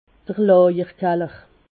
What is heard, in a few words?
"lajerkaller", alsace